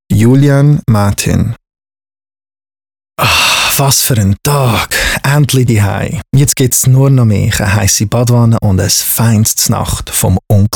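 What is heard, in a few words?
swiss spot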